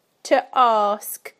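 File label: "to ask", British English; PictureDictionary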